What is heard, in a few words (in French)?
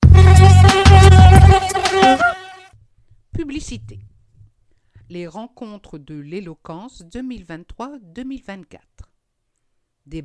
publicite; L'Abeille 52